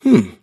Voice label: happy; davion; dota; dragon knight

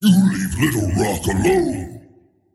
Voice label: anger; tiny

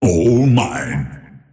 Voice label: lasthit pain; dota; earthshaker; arcana

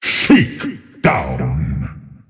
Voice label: attack pain; dota; earthshaker; arcana

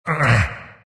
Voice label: grunts; dota; elder titan

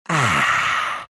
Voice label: happy; dota; meepo

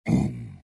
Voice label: pain; tiny

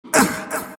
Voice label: monkey crown pain; dota; monkey king

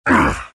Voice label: pain; dota; earth spirit

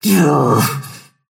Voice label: anger; snapfire

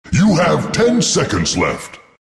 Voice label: announcer count pick 10; dlc naturesprophet

